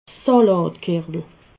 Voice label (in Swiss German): "salatkerwel", alsace